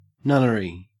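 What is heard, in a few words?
"nunnery", Australian English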